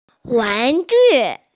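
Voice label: "wan2ju4", female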